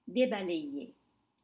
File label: "deblaye", French; clear